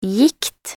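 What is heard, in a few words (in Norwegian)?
"gikt" Norwegian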